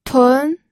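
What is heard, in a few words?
"tun2", Mandarin Chinese, pinyin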